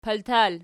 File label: "paltal", Archi